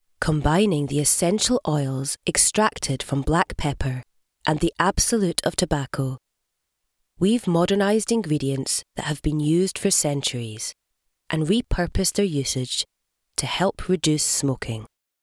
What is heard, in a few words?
Perfume Advert, voiceover; Commercial reads